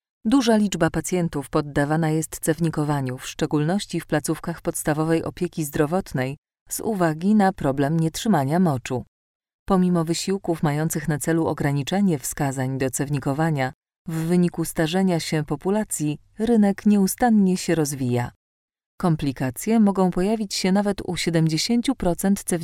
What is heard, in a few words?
polish female medical instructions